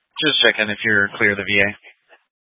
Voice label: Grand Junction Fire Department Dispatch